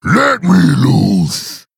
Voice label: Male Troll